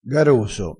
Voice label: "garoso", crespinese

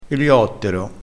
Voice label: "eliottero", vocabolario dialettale

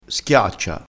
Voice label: "schiaccia", dialettale

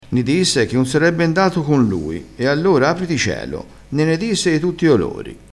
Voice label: "apriti celo", crespinese

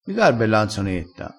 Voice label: "garba", crespinese

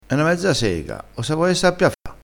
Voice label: "mezza sega", crespinese

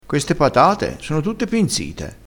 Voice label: "pinzito", crespinese

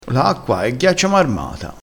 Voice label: "ghiaccio marmato", crespinese

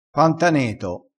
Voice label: "pantaneto", crespinese

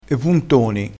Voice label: "puntoni", crespinese

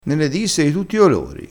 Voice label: "nene", dialettale

